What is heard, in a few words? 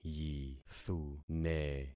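"yithune", concatenated syllables; Affixing experiment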